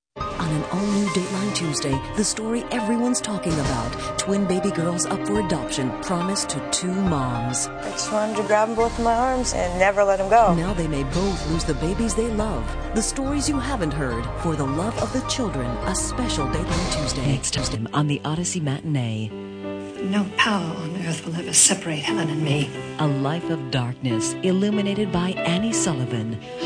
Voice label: tv promo